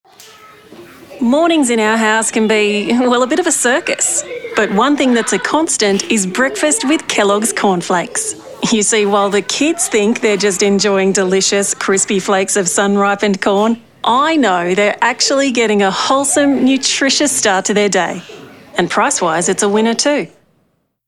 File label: Commercial; Kelloggs